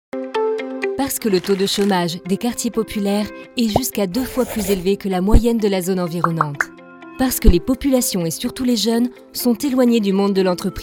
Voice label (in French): Motion Design Institutionnel; EntreprisesQuartiers